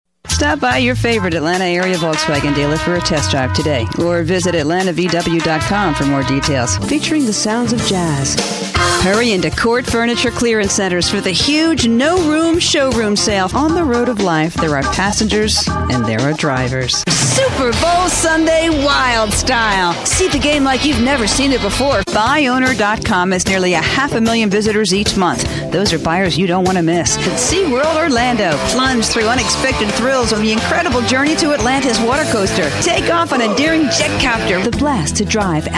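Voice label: commercial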